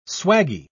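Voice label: "swaggie", British English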